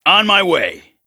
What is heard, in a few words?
VO en